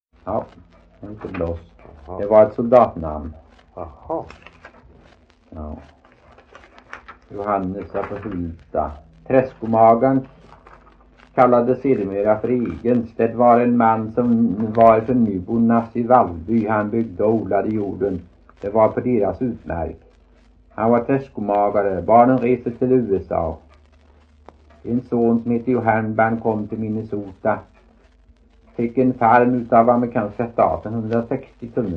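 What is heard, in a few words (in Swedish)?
Veddige Halland, Svenska sagor dialekter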